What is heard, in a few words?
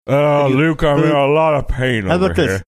saying uh i'm in lotta pain talking like this impaired tongue